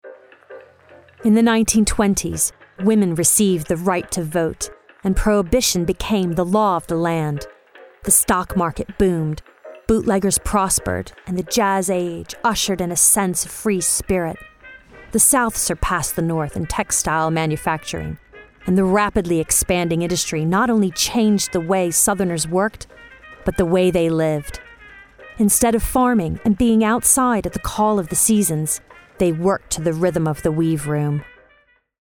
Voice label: Reel Narrative Documentary